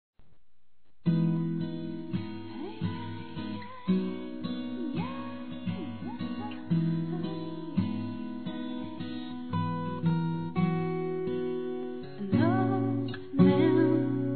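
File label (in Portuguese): Porque você é assim-Feminino; Telemensagens; Telemensagens 2017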